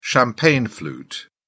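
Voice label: "champagne flute", British English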